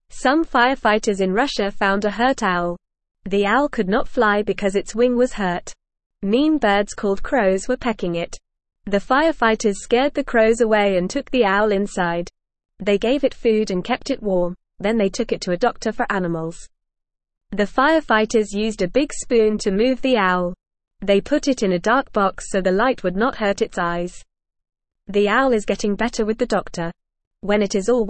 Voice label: English Beginner FAST Reading; Firefighters Rescue Hurt Owl and Help it Heal; English Newsroom